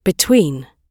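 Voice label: "between", British English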